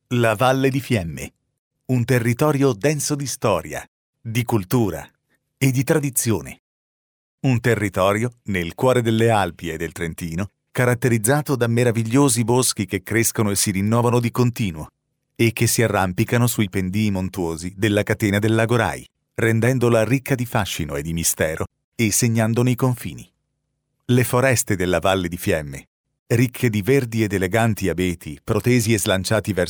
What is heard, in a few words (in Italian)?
documentari